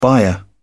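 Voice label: "byre", English, British English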